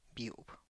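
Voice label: "biub", Tlacochahuaya Zapotec; chicatana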